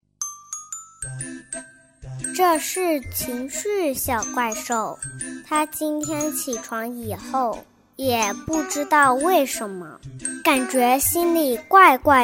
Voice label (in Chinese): 讲绘本 5.6岁真童女孩; 真童配音